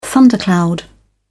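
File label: "thundercloud", British English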